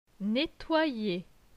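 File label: "Nettoyer", French; phonetique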